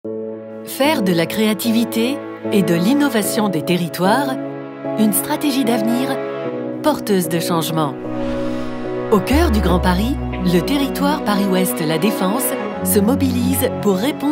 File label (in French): Voix vidéo; Pold